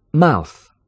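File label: "mouth", English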